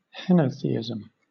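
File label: "henotheism", British English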